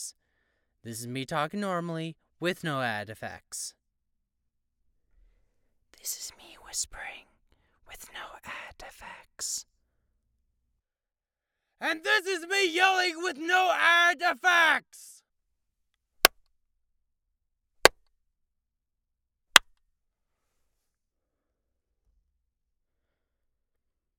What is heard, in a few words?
Home Studio Neumann TLM 103